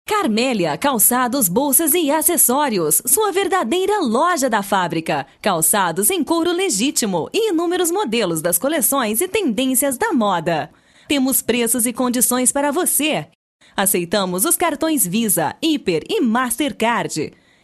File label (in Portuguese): radio ambiente locutora